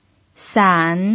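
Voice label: "san", third tone, Mandarin Chinese pinyin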